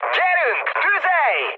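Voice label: attack position, mood high, Hungarian; speech; War Thunder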